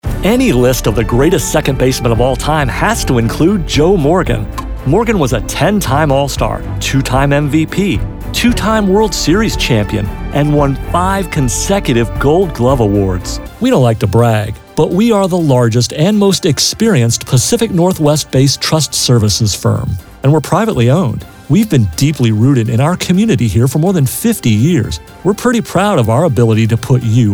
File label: vo narration